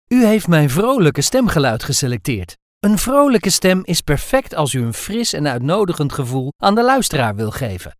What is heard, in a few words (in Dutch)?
vrolijk